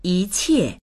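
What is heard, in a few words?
"yi2qie4", Mandarin Chinese; 一切; いっさい、すべて; dictionary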